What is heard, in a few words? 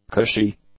"cushy", English; adjectives